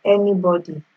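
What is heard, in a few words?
"anybody", Nigerian Pidgin, female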